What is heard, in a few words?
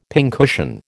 "pincushion", American